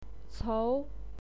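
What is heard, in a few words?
"cou", Mandarin Chinese, second tone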